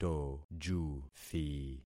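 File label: "tojuthi", concatenated syllables; Affixing experiment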